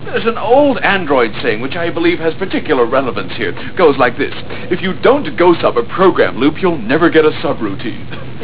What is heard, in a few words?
Android Saying; krytensounds